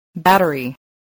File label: "battery", English